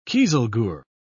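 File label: "kieselguhr", British English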